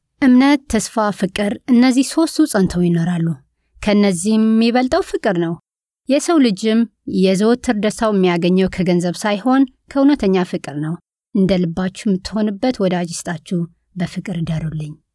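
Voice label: Amharic, female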